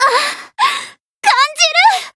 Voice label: Voice growth, jp; pom